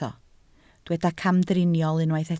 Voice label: "camdriniol", Welsh

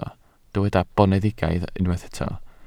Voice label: "boneddigaidd", Welsh

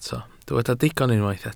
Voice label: "digon", Welsh

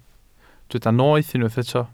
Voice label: "noeth" Welsh

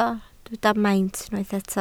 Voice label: "maint", Welsh

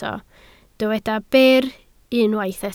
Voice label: "byr" Welsh

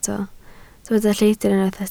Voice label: "lleidr", Welsh

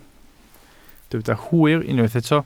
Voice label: "hwyr", Welsh

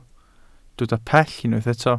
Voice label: "pell", Welsh

